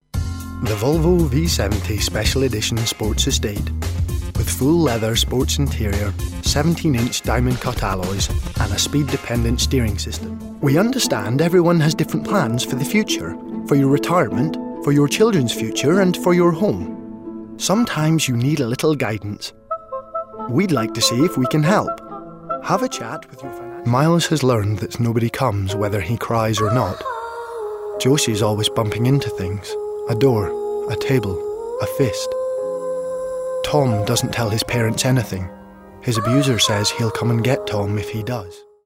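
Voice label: British Scottish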